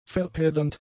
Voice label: "verheddernd", German; adjectives

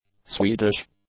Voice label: "Swedish", english; adjectives

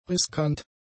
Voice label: "riskant", German; adjectives

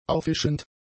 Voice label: "auffischend", German; adjectives

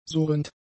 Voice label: "surrend", German; adjectives

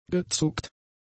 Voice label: "gezuckt", German; adjectives

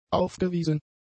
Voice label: "aufgewiesen", German; adjectives

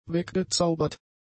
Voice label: "weggezaubert", German; adjectives

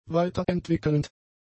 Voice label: "weiterentwickelnd", German; adjectives

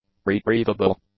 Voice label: "retrievable", English; adjectives

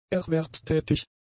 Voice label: "erwerbstätig", German; adjectives

